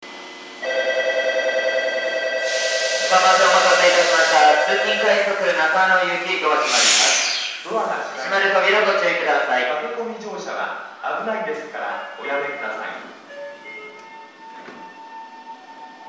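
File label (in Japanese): 八千代緑が丘駅 駅放送; 3番線; 発車 音質が良く、静かに響く; yachiyo-midorigaoka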